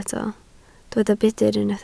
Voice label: "budr", Welsh

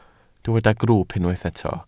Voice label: "grwwp", Welsh

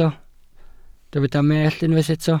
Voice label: "mellt", welsh

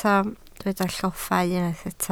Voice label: "lloffa", Welsh

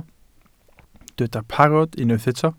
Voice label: "parod", Welsh

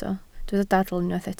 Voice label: "dadl", Welsh

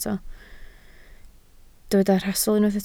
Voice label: "rhastl", Welsh

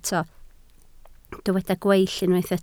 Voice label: "gweill", Welsh

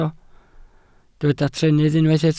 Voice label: "trennydd", Welsh

